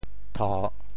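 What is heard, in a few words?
"tho3", Teochew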